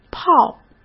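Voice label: "炮", Mandarin Chinese; chinese flashcards; hsk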